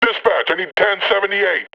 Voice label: metropolice pain heavy; vo; Half Life Alyx

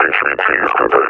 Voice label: announce kill player, combine officer; Half Life Alyx; Half Life Model Pack

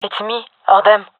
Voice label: scared, fem; vo; gendarmerie fem epic

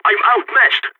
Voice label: radio need backup, balkan; vo

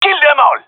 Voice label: round start, gendarmerie male; vo

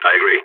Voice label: agree, balkan; vo

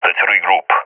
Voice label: request regroup, balkan; vo; balkan epic

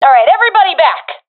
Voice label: request fallback, professional fem; vo; Counter Strike Model Pack; CS:GO

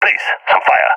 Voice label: request fire, balkan; vo; balkan epic

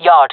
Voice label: "yard", fem; player vo jungle fem; Counter Strike Model Pack; CS:GO

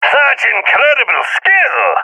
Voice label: sees headshot, balkan; vo; balkan epic

